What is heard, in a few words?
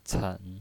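"cen", Mandarin Chinese, third tone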